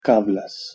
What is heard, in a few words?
"kavlaz", Proto-Germanic